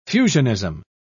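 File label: "fusionism", British English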